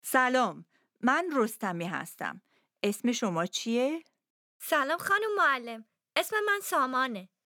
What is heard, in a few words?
Dialogue lesson Persian